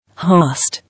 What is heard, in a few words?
"Host", English